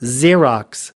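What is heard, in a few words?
"xerox", American English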